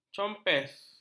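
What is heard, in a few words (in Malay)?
"compes", Malay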